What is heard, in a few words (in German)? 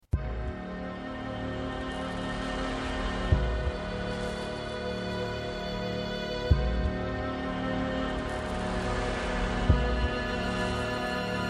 Intro Sprecher